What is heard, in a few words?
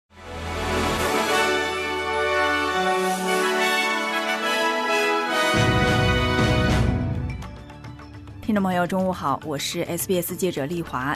mandarin newsflash; 慈善机构警告澳洲面临最严重住房危机; SBS Chinese; SBS新闻快报